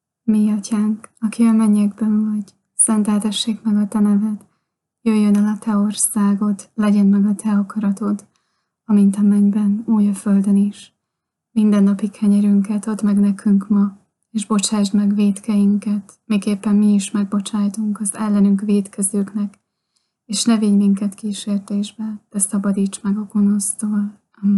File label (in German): Vater unser in ungarisch; Jesusnacht Goettingen; Osterzeit